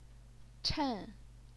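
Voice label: "chen", fourth tone, Chinese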